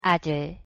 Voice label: "아들", Korean; pronunciation

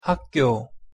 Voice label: "학교", pronunciation ko

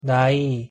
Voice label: "나이", Korean

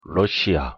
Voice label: "러시아", Korean; pronunciation

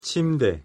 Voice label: pronunciation ko 침대

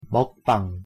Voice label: "먹방", Korean; pronunciation

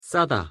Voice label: "싸다", Korean; pronunciation

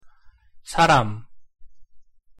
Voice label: "사람", Korean; pronunciation

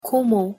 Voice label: "고모" Korean pronunciation

